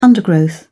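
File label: "undergrowth", English, British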